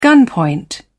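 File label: "gunpoint", British English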